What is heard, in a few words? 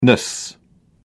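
"ness", British English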